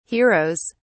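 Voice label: "heroes", British English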